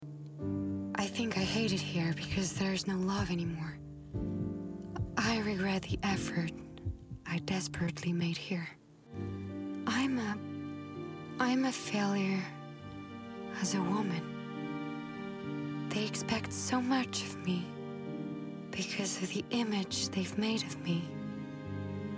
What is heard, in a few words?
English